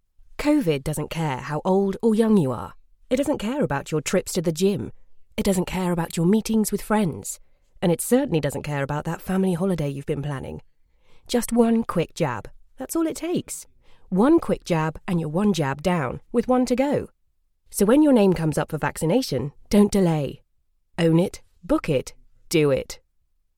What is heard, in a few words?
female commercial voiceover bright genuine